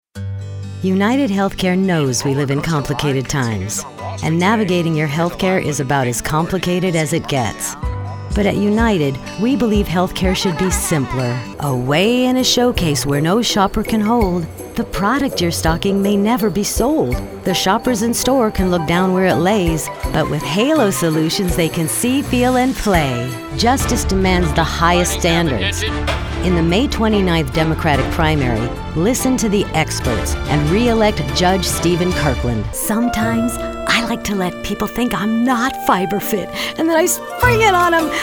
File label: Commercial